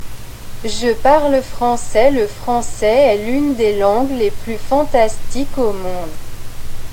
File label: french